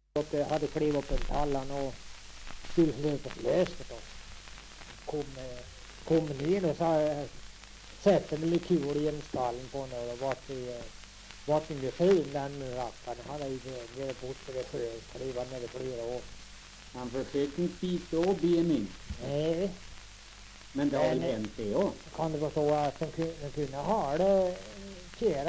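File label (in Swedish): Tuna Medelpad, svenska sagor dialekter; Folkminnen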